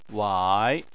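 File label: "wai", Chinese, third tone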